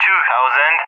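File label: "20", English speech; Numbers; Allied Flight; DCS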